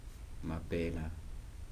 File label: "guava", Kibembe